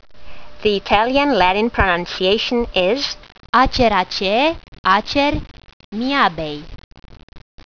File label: latin; Acer miyabei